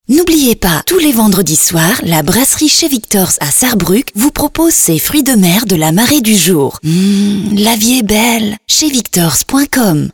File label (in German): Werbung französisch